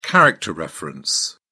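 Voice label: "character reference", British English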